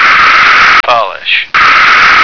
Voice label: "polish", American

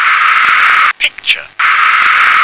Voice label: "picture", English

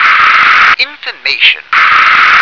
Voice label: "infomation", English

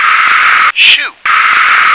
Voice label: "shoe", English

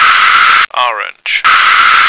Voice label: "orange", American

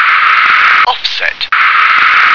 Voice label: "offset", English

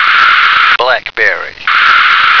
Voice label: "blackberry", American

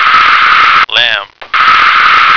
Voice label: "lamp", American

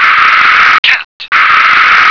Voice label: "cat", English

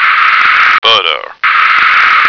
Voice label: "butter", American

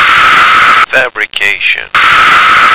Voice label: "fabricate", American

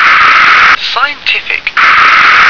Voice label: "scientific", English

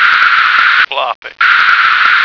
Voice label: "floppy", American

